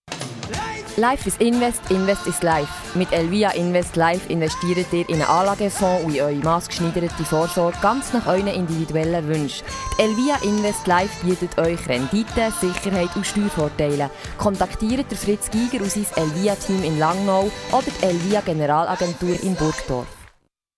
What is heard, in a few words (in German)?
Werbespot Mundart; Elvia Invest